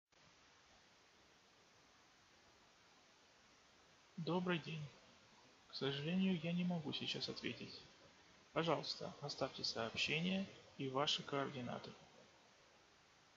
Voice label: voicemail